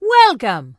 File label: Dwarf Female Guard NPC Greeting